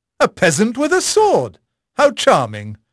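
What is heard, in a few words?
English male; Pirates Of The Caribbean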